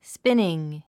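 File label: "spinning", English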